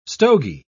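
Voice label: "stogie", English, British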